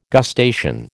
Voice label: "gustation", American

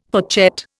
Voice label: "fourchette", Indian

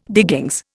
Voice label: "diggings", Indian

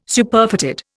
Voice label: "Superfatted", Indian

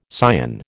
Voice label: "scion", American

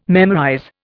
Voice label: "memorize", Indian

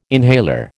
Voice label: "inhaler", American

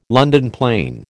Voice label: "London plane", American

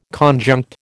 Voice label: "conjunct", American

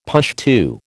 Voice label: "Pushtu", American

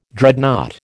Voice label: "Dreadnought", American

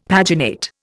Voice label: "paginate", Indian; Dictionary

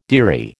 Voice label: "dearie", American

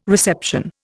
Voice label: "reception", Indian

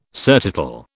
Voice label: "surtitle", British

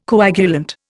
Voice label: "coagulant", Indian

